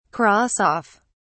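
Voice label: "cross off", British English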